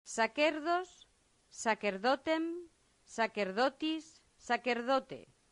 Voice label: "sacerdos", latin; santillana

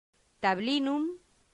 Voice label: "tablinum", latin; santillana

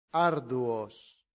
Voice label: "arduos", latin; santillana

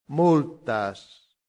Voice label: "multas", latin; santillana

